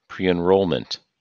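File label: "preenrollment", us English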